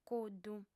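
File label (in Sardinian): "cuddu", female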